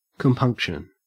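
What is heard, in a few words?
"compunction", Australian English; pronunciations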